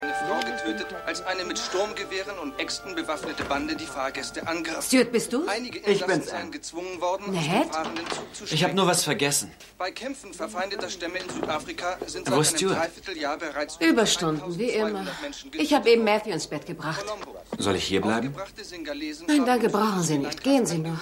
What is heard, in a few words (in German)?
Nachrichtensprecher Mann TV